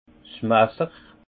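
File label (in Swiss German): "masser", Haut Rhin; vin; couteau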